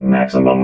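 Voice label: "maximum", robot voice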